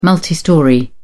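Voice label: "multistorey", British English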